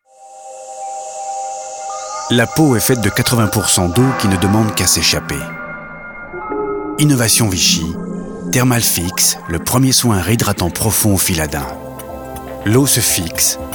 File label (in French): TV Droit Sobre Explicatif; Bourgogne; VICHY